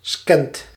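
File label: "scant", Dutch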